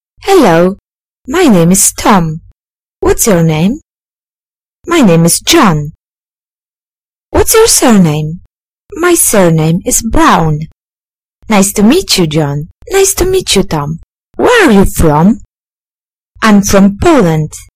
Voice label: dialog